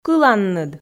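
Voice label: "кыланныд", Komi, female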